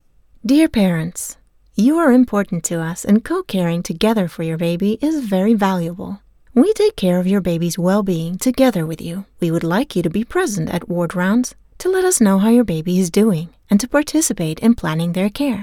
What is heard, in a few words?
English narration